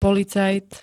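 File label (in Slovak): "policajt", Slovak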